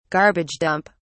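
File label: "garbage dump", English, British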